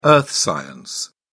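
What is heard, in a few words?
"earth science", British English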